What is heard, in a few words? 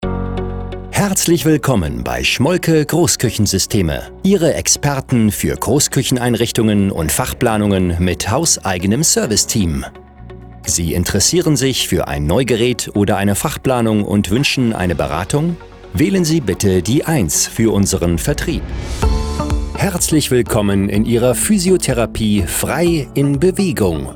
Anrufbeantworter Voicemail German Voice Over